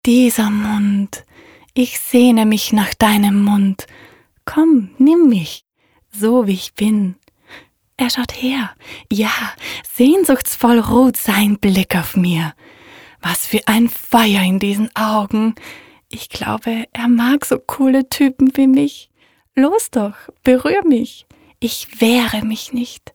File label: romantic